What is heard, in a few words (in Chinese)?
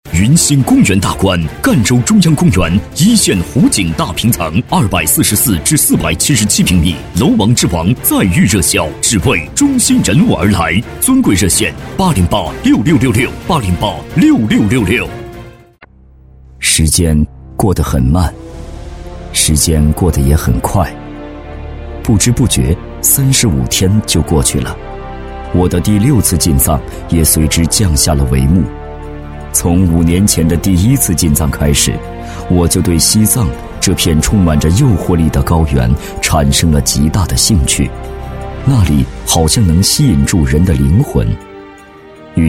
国语 男声 旁白 个人自述-沉稳陈述